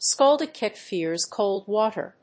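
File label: "scalded cat fears cold water", English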